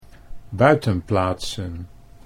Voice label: "buitenplaatsen", Dutch

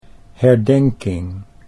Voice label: "herdenking", Dutch

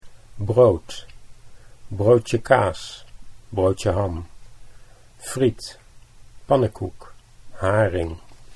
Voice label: "brood broodje", Dutch

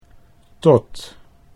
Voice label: "tot", Dutch

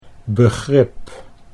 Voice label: "begrip", Dutch

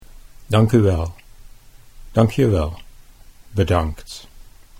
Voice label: "dank u wel bedankt", Dutch

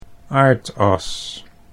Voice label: "aardas", Dutch

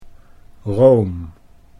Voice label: "room", Dutch

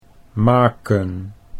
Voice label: "maken", Dutch

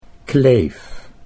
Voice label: "Kleef", Dutch

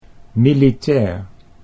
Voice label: "militair", Dutch

